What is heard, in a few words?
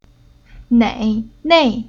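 "Nei", Chinese syllable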